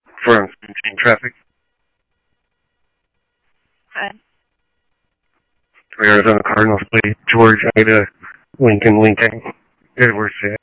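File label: Mesa Police Department, scanner audio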